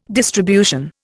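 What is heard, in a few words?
"distribution", Indian English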